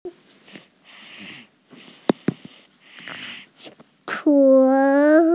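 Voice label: "qun2", Mandarin Chinese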